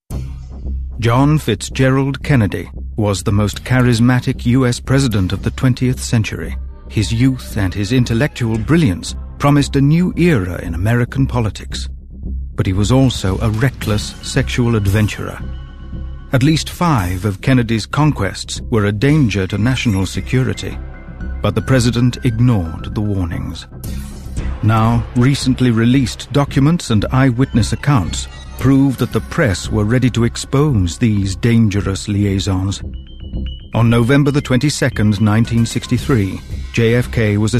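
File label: narration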